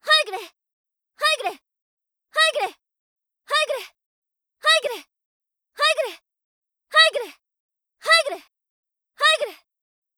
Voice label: ハイグレ 揃, 姉

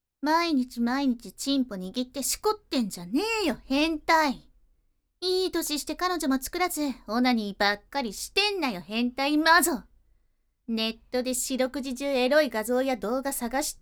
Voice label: 罵倒ボイス

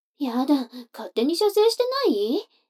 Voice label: 女の子 台詞, ASMR

